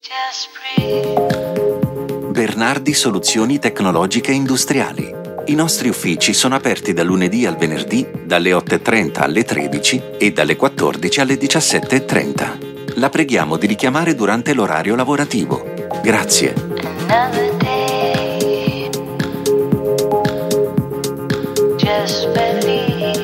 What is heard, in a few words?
italian male voice telephone